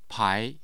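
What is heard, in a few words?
"pai2", Mandarin Chinese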